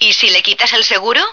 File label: "safety", female